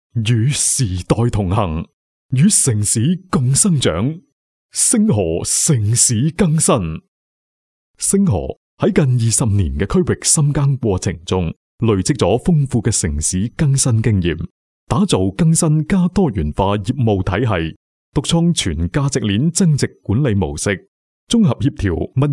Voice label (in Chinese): 粤男 专题; 星河城市更新